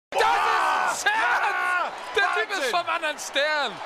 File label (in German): klingelton scherz; Truthahn Imitation